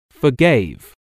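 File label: "forgave", English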